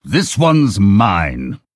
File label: Male Human Attack